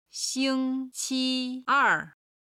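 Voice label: "xingqi'er", Chinese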